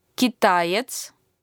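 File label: chinese, male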